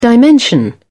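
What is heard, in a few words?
"dimension", British English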